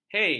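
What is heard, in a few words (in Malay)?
"hei", Malay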